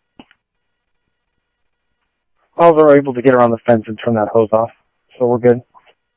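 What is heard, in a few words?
Sheriff West County, scanner audio trunk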